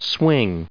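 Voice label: "swing", English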